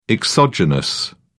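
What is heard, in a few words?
"exogenous", British English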